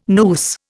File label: "noose", Indian